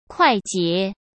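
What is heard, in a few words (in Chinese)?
"快捷" Mandarin Chinese; chinese-flashcards; hsk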